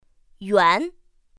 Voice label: "yuán", pinyin; pinyin2; pinyinji

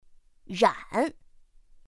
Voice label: "rǎn", pinyin; pinyin2; pinyinji

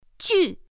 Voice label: "jù", pinyin; pinyin2; pinyinji

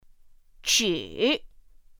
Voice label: "zhǐ", pinyin; pinyin2; pinyinji

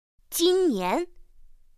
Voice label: "jīnnián", pinyin; pinyin2; pinyinji

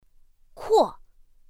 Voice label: "kuò", pinyin; pinyin2; pinyinji

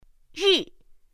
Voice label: "rì", pinyin; pinyin2; pinyinji

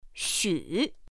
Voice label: "xǔ", pinyin; pinyin2; pinyinji

